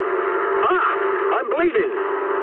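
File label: English damaged pilot mood low; speech; War Thunder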